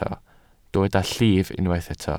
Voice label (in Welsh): "llif", Welsh